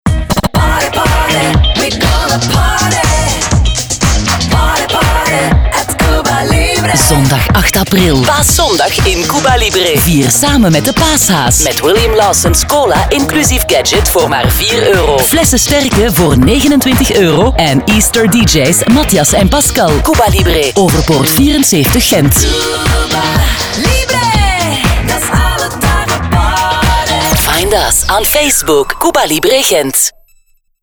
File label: commercial; cuba libre paaszondag